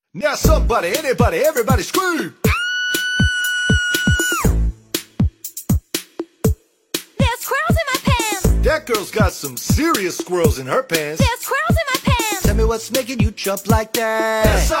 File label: Now somebody everybody scream